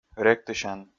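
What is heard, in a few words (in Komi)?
"ректысян", Komi, male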